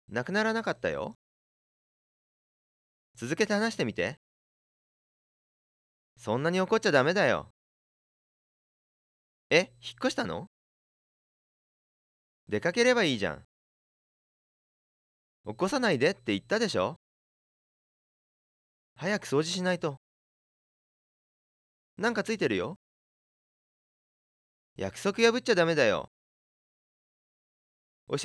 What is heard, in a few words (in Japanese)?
verb accent